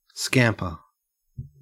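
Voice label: "scamper", Australian English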